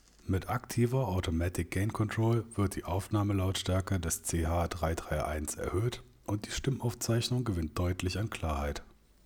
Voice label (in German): mit AGC; Cooler Master CH331